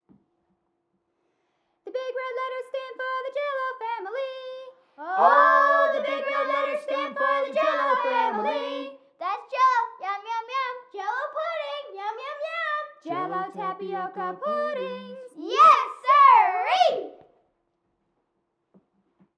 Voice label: commercial filtered; sound effects and sound reinforcement